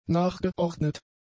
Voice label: "nachgeordnet", German; adjectives